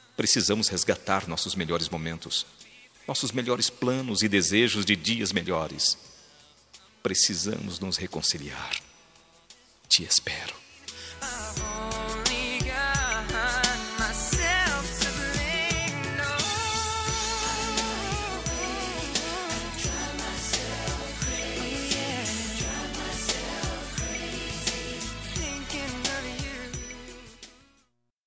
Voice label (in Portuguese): Não pode ser para sempre masc